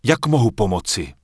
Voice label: Human Male Merchant Start Shopping